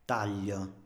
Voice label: "taglio", Italienisch; Standard-Italienisch

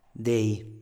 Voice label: "dei", Italienisch; Standard-Italienisch

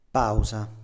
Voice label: "pausa", Italienisch; Pause; Standard-Italienisch

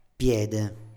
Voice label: "piede", Italienisch; Fuß; Standard-Italienisch

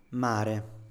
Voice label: "mare", Italienisch; Meer; Standard-Italienisch

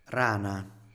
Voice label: "rana", Italienisch; Frosch; Standard-Italienisch

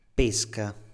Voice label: "pesca" (e), Italienisch; pesca (Fischerei); Standard-Italienisch

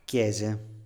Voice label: "chiese" (z), Italienisch; Standard-Italienisch; Kirchen

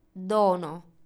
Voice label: "dono", Italienisch; Geschenk; Standard-Italienisch

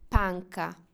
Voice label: "panca", Italienisch; Schulbank; Standard-Italienisch

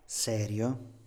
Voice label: "serio", Italienisch; ernst; Standard-Italienisch

